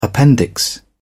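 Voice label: "appendix", British English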